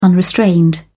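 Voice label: "unrestrained", English, British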